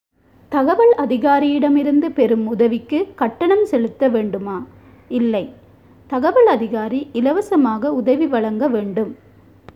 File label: Tamil